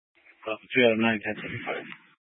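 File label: Troop 2A Dispatch, scanner audio recording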